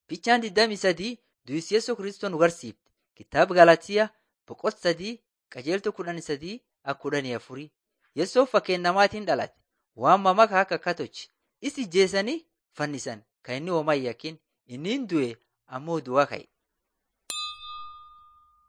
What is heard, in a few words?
borana sakuye